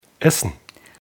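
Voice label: "essen", German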